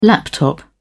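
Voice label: "laptop", British English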